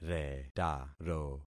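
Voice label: "retaro", concatenated syllables; Affixing experiment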